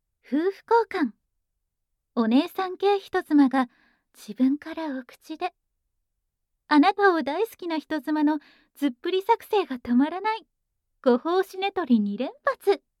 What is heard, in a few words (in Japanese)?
タイトルコール